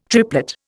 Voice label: "triplet", Indian